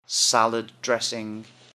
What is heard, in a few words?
"salad dressing", English; Common Prepared Foods